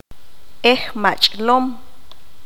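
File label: "Chistoso cómico", Q'anjob'al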